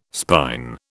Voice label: "spine", British

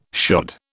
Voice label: "shod", British

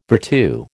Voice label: "virtu", American

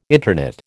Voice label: "interknit", American

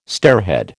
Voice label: "stairhead", American

